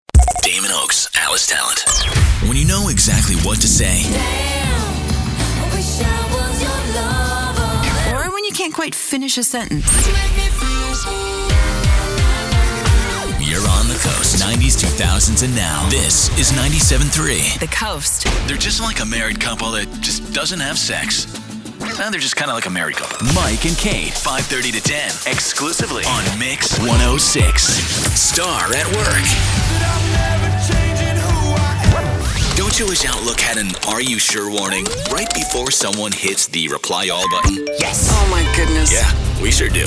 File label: radio hot ac; radio imaging